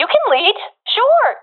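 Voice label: following friend, professional female; vo; Counter Strike Model Pack; CS:GO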